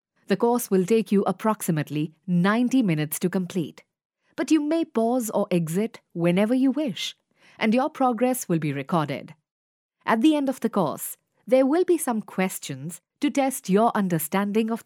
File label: female indian voice over narrative